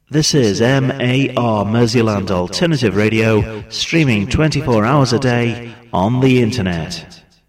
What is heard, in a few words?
Shoutcast, Voiceovers; MAR